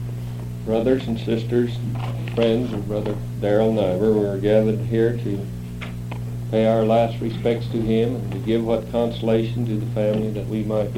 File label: Announcement